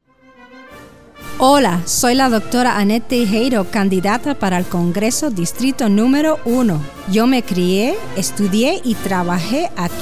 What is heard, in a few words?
Spanish Ad; Otros Medios